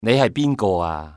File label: "who are you", male; XBOX; civ